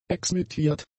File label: "exmittiert", German; adjectives